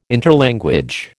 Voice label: "interlanguage", American